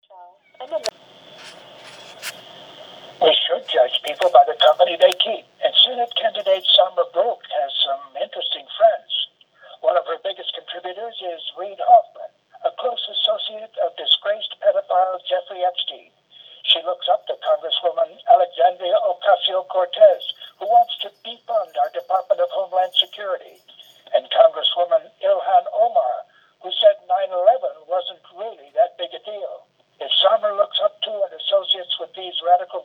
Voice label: Robocall; attacking Samra Brouk; Epstein